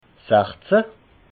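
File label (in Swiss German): "sachzehn", Alsatian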